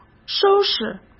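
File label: "收拾", Mandarin Chinese; hsk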